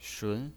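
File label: "shun", Mandarin Chinese pinyin, second tone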